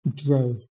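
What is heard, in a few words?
"drew", Proto-Indo-European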